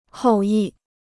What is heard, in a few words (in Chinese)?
"后裔", Chinese